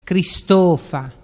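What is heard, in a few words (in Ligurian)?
"cristofa", Ligurian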